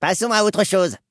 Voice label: gnome, male; passons autre chose; PhoenixCraft-1.16.5; PhoenixNPCPack; minecraft